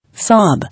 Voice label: "Sob", English